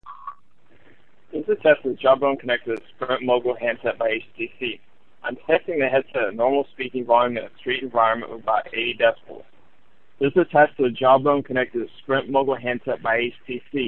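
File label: street 80db, Bluetooth headset; Jawbone; Mogul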